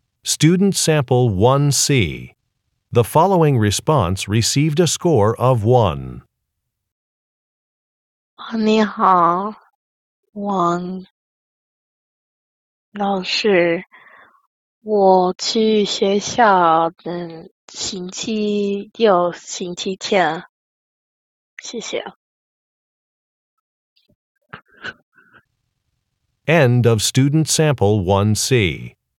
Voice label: chinese conversation; Conversation 1 Sample C